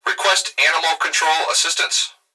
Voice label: Emergency Human Male Voice Police Radio Request Animal Control Assistance; emergency effects